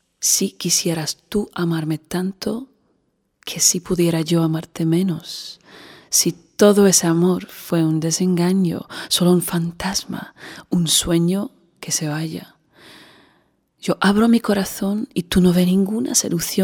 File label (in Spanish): español